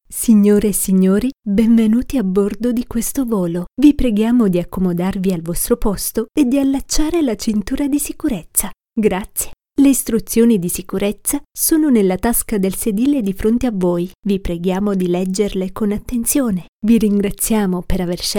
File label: IT, Announcement